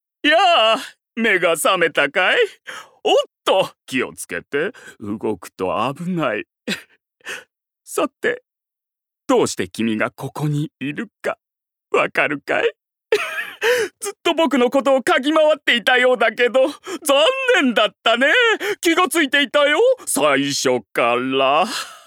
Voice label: male voice